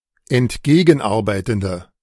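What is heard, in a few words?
"entgegenarbeitende", German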